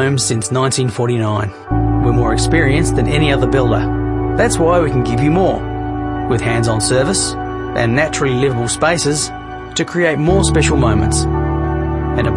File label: male voice over artists australia